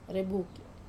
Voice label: "rebuki", stone, wamesa